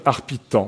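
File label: "Arpitan", French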